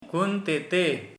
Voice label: "there", Sora